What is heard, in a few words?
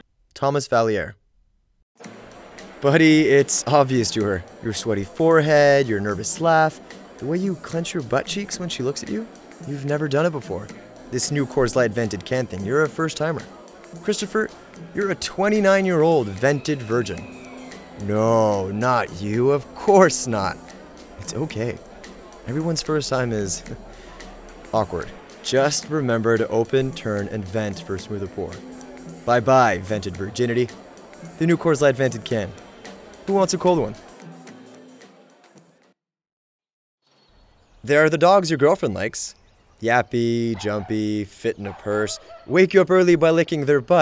Voice Demo English